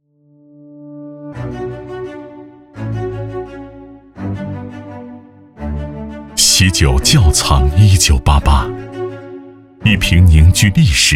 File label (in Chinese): 男 酒类 柔和深沉感; 习酒·窖藏1988